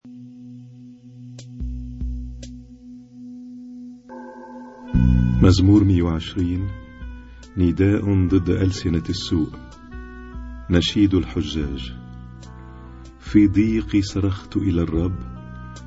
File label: Arabic Psalm Zaboor